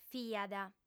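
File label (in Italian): "fiat", lingua sarda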